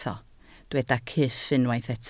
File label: "cyff", Welsh